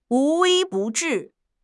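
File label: "无微不至", Mandarin Chinese; HSK6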